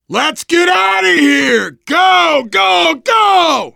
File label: arrive generic, survivor voice biker; Left 4 Dead 2